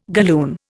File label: "galloon", Indian